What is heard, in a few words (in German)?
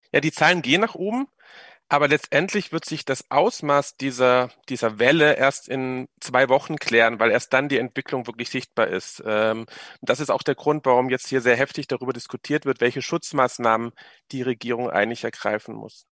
radio